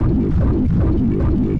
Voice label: 8-bit lo fi dark demon voice